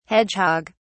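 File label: "hedgehog(s)", British English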